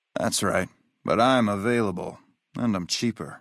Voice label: male adult gambler asks; FNV

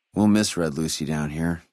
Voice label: male adult dialogue greeting; Fnv

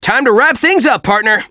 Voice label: male; SonicForces

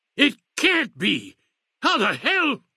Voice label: male old greeting; Fnv

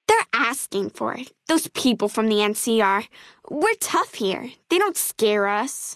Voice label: female child greeting

